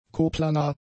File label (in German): "koplanar", German; adjectives